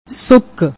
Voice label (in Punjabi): "suk", Punjabi